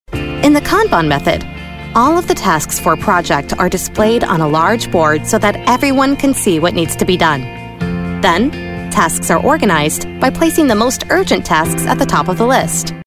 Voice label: Friendly and tech savvy; e-learning